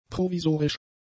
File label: "provisorisch", German; adjectives